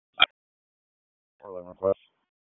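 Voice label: Raleigh Police Southeast District Dispatch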